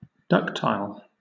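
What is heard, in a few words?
"ductile", British English; pronunciation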